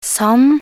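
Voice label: "sand", Norwegian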